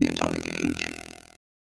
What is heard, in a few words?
ELECTRO MALE 140 A, Vocals